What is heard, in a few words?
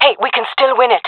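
round losing, female voice; player vo; Counter Strike Model Pack; CS:GO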